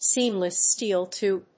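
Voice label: "seamless steel tube", English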